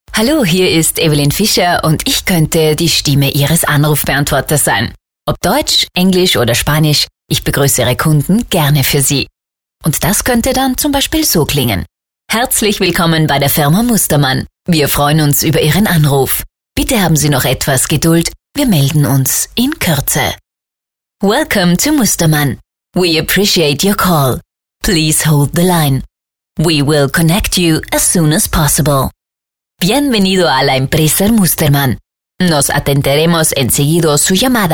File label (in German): Sprecher Telefonansagen